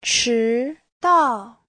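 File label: "chídào", Chinese; 迟到; (động từ) đến muộn; Giáo trình chuẩn HSK3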